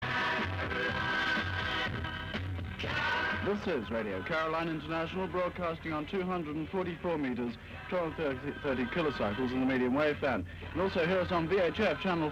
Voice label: ID announcement and news of Conservative victory; RNI (Caroline)

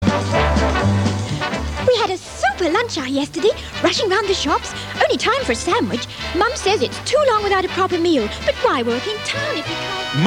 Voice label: Commercial; Radio London; Weetabix

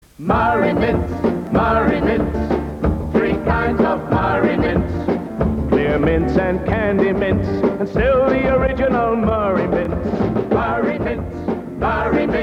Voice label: Mints advert; Murray

